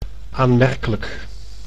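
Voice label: "aanmerkelijk", Dutch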